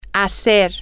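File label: to do, Spanish, female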